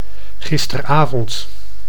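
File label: "gisteravond", Dutch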